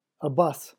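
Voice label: "a bus", English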